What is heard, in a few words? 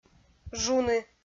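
"жуны", Komi, female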